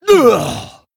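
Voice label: Male Dryad Death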